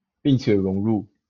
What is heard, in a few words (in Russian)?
Голоса, Тайваньский